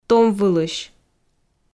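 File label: "томвылысь", Komi, female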